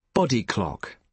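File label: "body clock", British English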